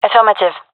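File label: affirmation, female; gendarmerie fem epic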